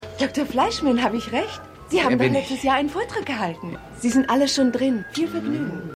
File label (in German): Empfangsdame; AusgerechnetAlaska